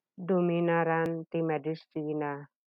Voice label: Ilocano